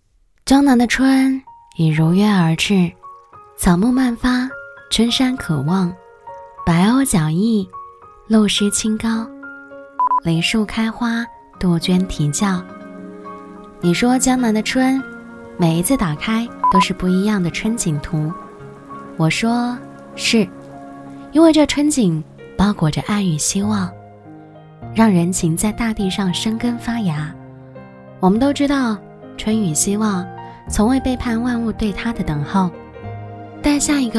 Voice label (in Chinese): 女 素少女 带你云赏春景